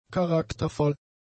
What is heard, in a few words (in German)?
"charaktervoll", German; adjectives